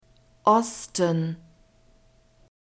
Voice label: "Osten", German; points of compass